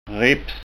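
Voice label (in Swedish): "ripps", Skåne dialect Swedish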